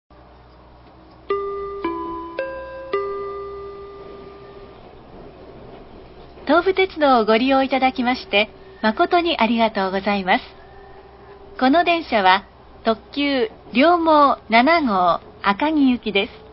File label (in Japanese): 北千住発車後館林到着前, 車内放送; tobutetsudo